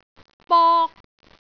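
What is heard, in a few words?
"bok1", Cantonese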